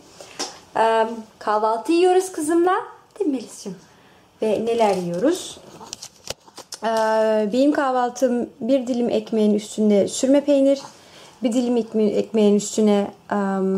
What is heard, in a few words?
turkish listening novice